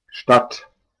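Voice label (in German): "Stadt", German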